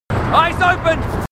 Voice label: marines, aussie; h2dialogue

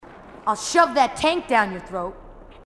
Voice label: marine tough

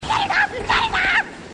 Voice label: get it off, grunts

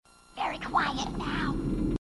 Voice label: very quiet now, grunts

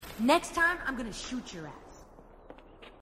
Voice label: female marine, marine tough

